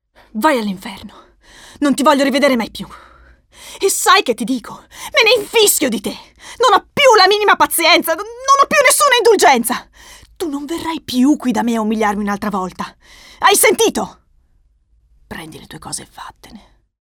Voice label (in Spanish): MONOLOGO